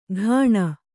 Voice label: "ಘಾಣ", Kannada

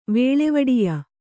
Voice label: "ವೇಳೆವಡಿಯ", Kannada

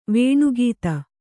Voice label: "ವೇಣುಗೀತ", kannada

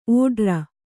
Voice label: "ಓಡ್ರ", Kannada

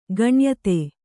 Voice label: "ಗಣ್ಯತೆ", Kannada

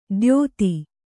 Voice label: "ದ್ಯೋತಿ", Kannada

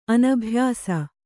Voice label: "ಅನಭ್ಯಾಸ", kannada

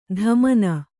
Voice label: "ಧಮನ", Kannada

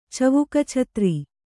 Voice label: "ಚವುಕಛತ್ರಿ", Kannada; cavuka chatri; noun

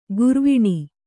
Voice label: "ಗೌರೀವೀಣೆ", kannada

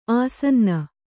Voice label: "ಆಸನ್ನ", Kannada

